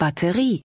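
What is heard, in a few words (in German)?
"Batterie", German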